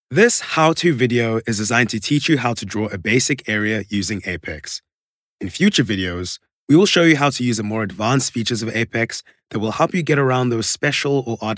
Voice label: SA Corporate E Learning

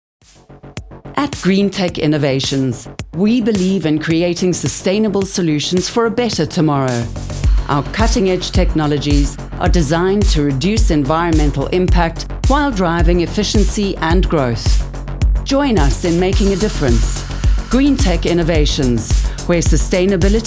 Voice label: Corporate; Greentech Innovations; South Africa

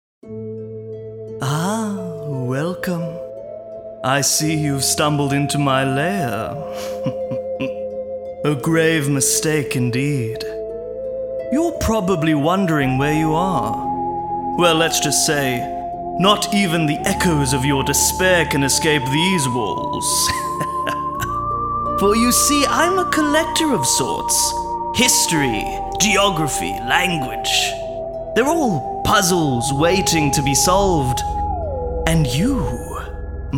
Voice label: Evil Character; South Africa

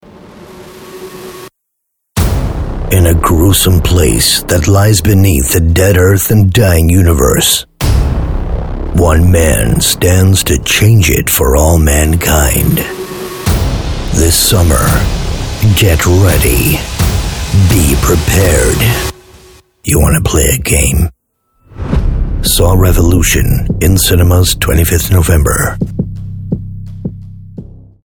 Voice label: American Movie Trailer

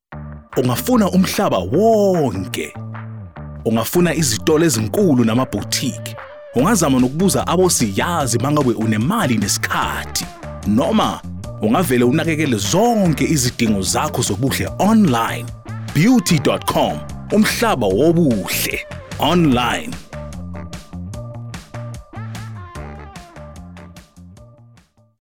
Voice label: Beauty Zulu

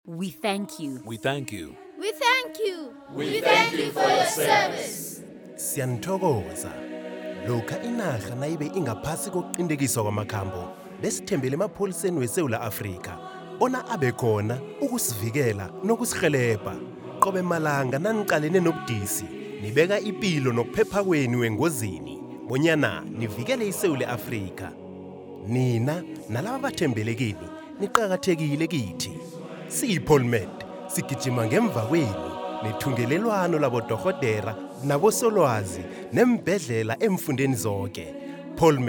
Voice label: Ndebele; POLMED

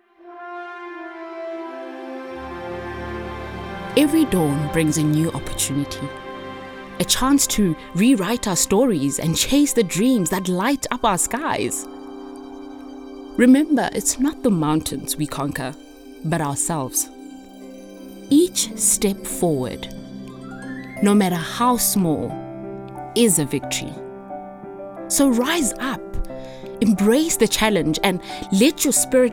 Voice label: Inspirational with music

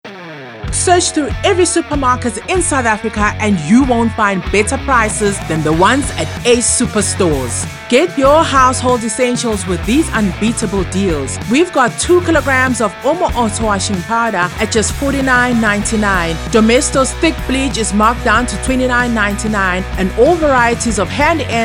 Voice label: Retail Hard Sell

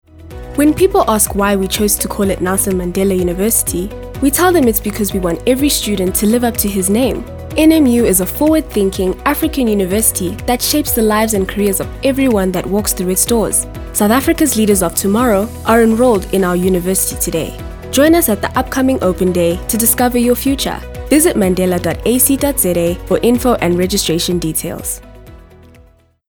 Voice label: Corporate Education

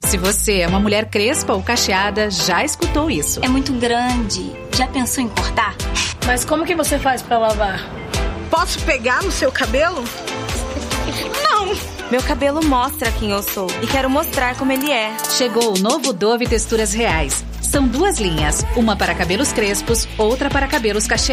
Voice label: Natural Animada; Dove Dawn

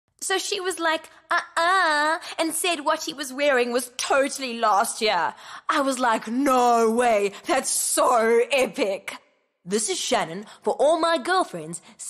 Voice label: Voice Over Radio; Rosebank College

